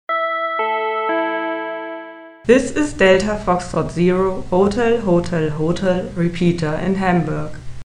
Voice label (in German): 10m-FM-Relais Sprachansage 29.690 MHz; DF0HHH